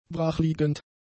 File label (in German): "brachliegend", German; adjectives